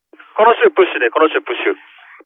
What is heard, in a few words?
race voice; toyota; superformula